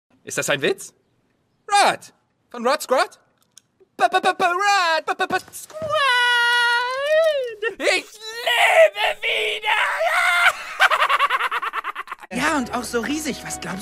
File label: Toon Anime; Toon Chargen Anime